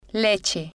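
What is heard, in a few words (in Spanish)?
"leche", Spanish, female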